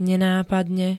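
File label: "nenápadne", Slovak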